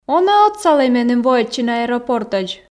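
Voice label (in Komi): он-ӧ отсалӧй меным воӧдчыны аэропортӧдз, Komi, female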